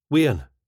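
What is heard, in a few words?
"weeun", Scottish English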